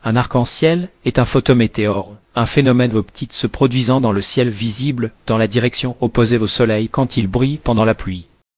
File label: speaker, fr FR; piper-voices